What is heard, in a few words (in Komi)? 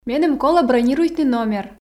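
меным колӧ бронируйтны номер, Komi, female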